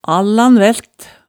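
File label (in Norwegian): "allanvælt", Hemsedal dialect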